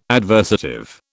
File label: "adversative", British